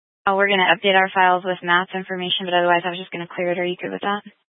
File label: Mesa County Sheriff Dispatch; scanner